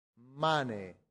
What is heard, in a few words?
"mane", latin; santillana